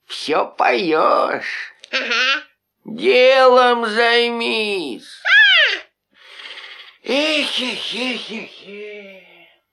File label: dialog